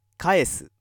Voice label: male